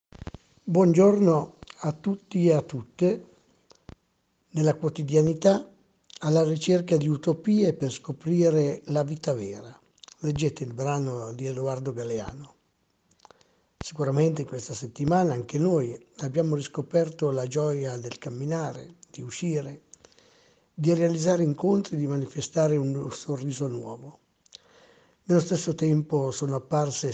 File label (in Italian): Messaggio sacerdoti parrocchia; Parrocchia di Galliate